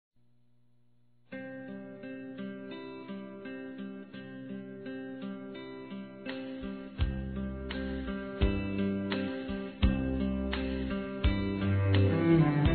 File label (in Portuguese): Aniv. de Nora, M